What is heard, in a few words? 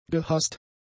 "gehasst", German; adjectives